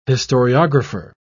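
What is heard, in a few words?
"historiographer", British English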